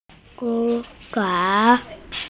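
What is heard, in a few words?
"mo fang" Chinese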